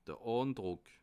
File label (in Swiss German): "andruck", alsace